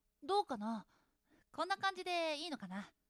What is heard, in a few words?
woman